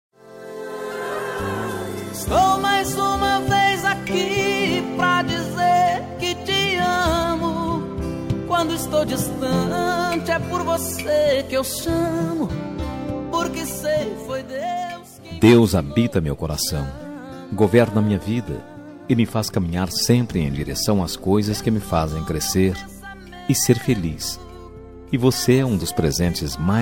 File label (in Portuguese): dia dos namorados gospel namorada, evangélica voz masculina